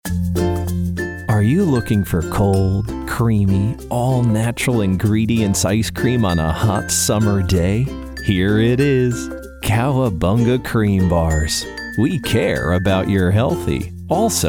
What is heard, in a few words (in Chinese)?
外籍英男 温馨